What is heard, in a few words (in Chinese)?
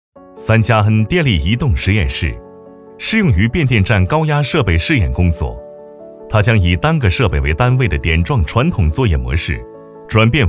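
男国大音-科技; 3N型电力实验室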